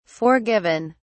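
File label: "forgiven", British English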